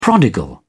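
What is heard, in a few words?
"prodigal", English, British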